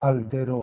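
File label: "h2eltero", Proto-Indo-European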